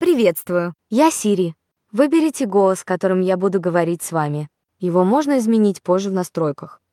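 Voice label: Russian, Russia; iOS Sounds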